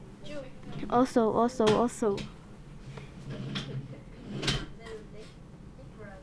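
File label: "also", spoken word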